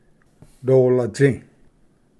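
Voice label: "dolatsi", Cherokee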